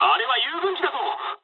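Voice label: player friendly fire mood high, speech japanese; War Thunder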